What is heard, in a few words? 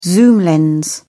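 "zoom lens", British English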